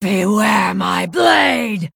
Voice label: Female Night Elf Attack

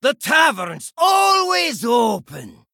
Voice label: Innkeeper Male Dwarf Greeting

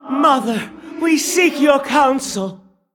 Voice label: Female Stone Watcher Play

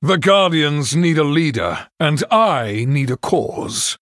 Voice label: Male Human Picked

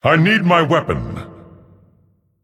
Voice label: Male Titan Forged Emote Error Need Weapon

